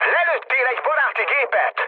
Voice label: player killed ally, mood low, speech hun